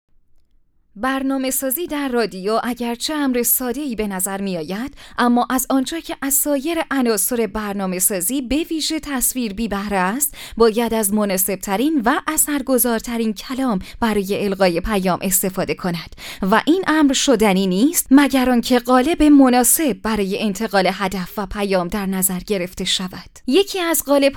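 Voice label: Farsi Narrative; GoLocalise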